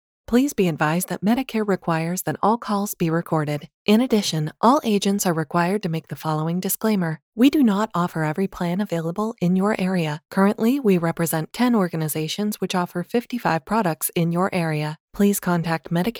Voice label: IVR Disclaimer Gen X Voice

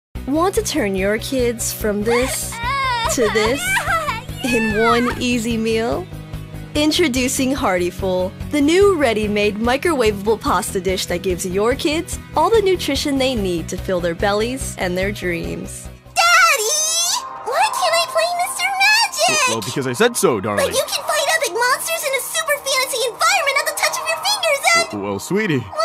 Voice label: Commercial

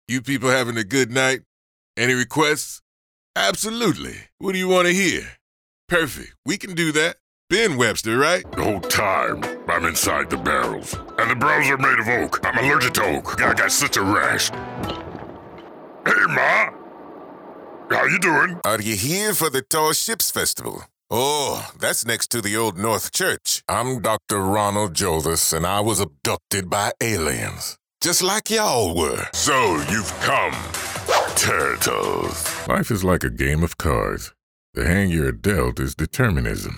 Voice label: Characters ADR

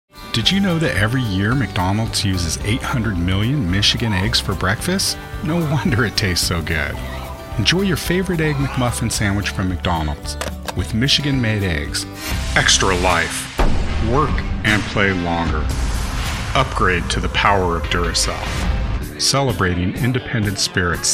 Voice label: VO Commercial

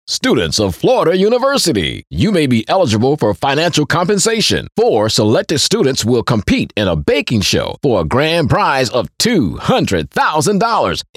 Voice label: PSA VO

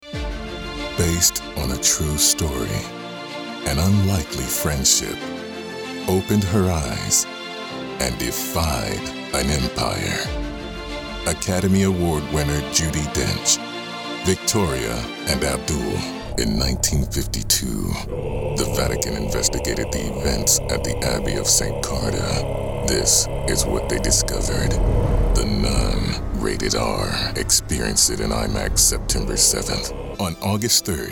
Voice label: Trailers

